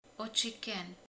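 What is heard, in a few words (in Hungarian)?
"zsír", Hungarian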